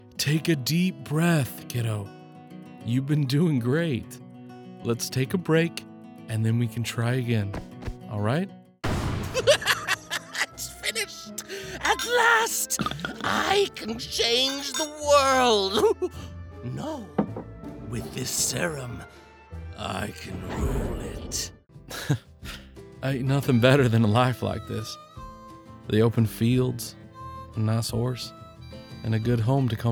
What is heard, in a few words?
Character